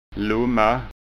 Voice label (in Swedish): "lomma", skanskaord